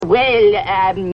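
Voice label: "well um", male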